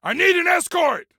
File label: Need Escort, Soldier Male; Soldier Male 03